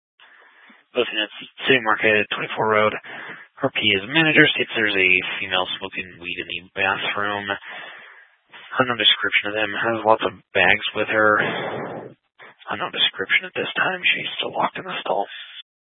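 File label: Police 1 Dispatch, Scanner audio recording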